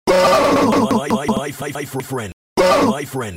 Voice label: stutter